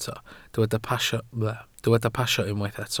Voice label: "pasio", Welsh